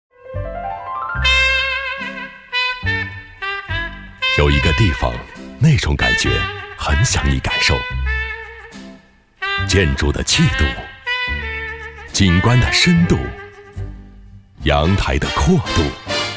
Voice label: 男 国语; 臻园

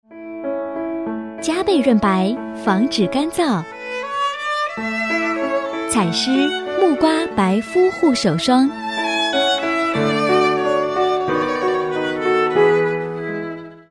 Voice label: 女; 采诗

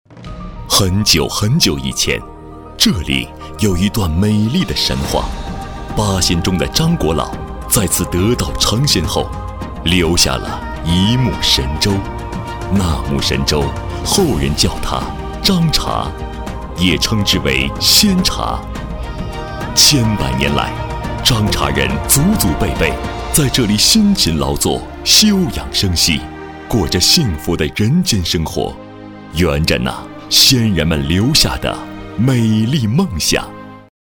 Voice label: 男 茶

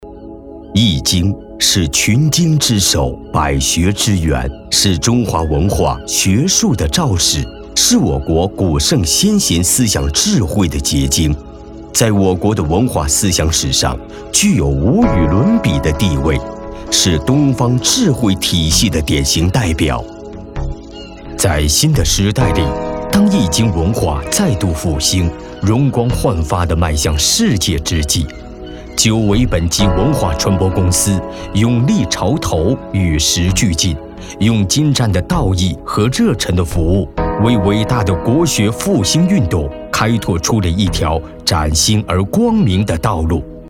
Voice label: 男 浑厚 大气 流畅; 易经

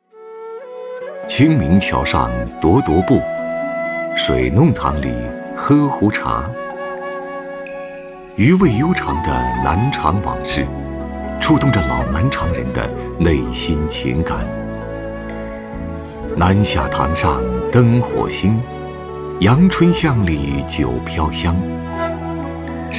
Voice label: 男 风光片 旅游宣传

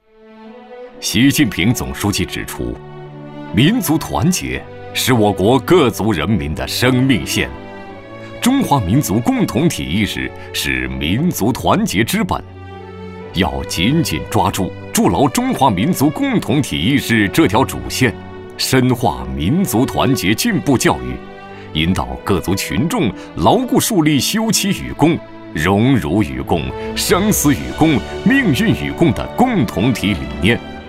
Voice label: 男 专题片 民族团结 大气浑厚

